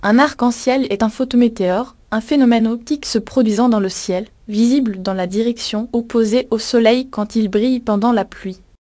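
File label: speaker, American English; piper-voices; upmc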